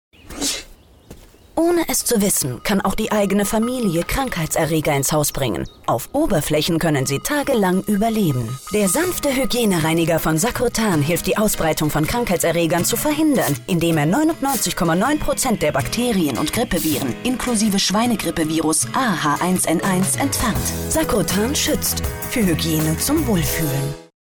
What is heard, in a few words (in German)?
nur Ton TV Spot; Sagrotan